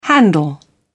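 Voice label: "handle", British English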